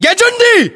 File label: rank private, battle chatter, english